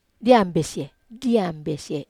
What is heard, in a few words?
"ambesie", Wamesa